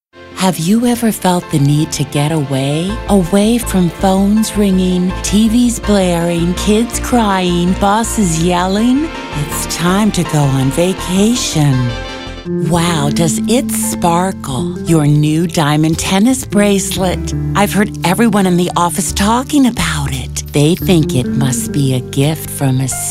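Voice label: Commercial, voice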